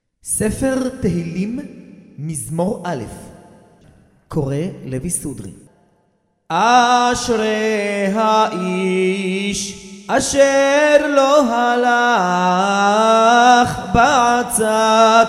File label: recorded tanach tehillim sefardi moroccan; Livre des Tehillim sur rite Séfarade Marocain